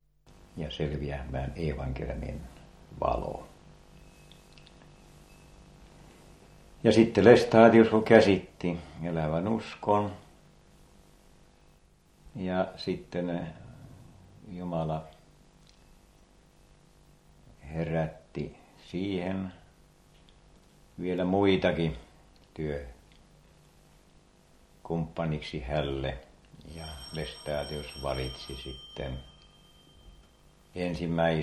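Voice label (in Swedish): Övertorneå Norrbotten, dialekter; Folkminnen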